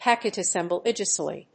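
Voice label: "packet assembly disassembly", English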